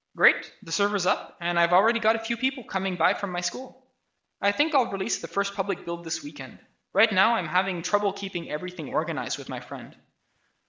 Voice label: voice, narration